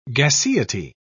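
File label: "gaseity", British English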